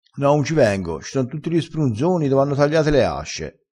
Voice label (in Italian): "sprunzone", vocabolario dialettale